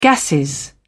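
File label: "gasses", British English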